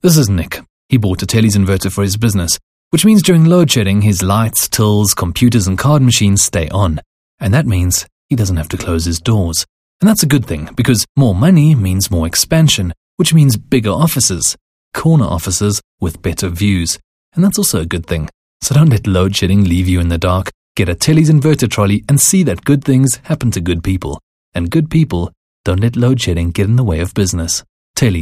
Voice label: English Conversational Sell